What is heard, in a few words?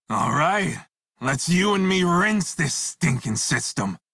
Crew Hired Male en; Dialog; Warframe; Railjack Crew; Red Veil